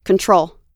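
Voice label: "control", US English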